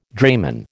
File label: "drayman", American